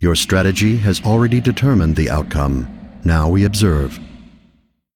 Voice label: Male Constellar